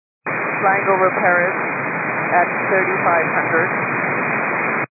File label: 122 925MHz, scanner radio